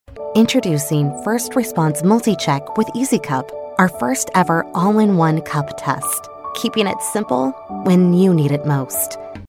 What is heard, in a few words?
Warm and caring, commercial